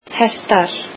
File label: "horses", Icelandic